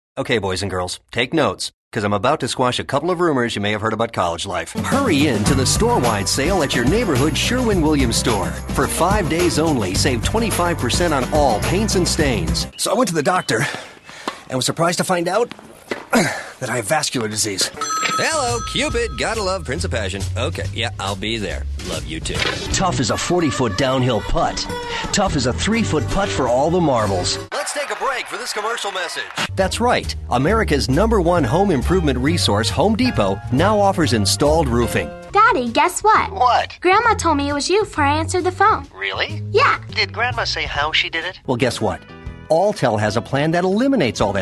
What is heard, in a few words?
American Male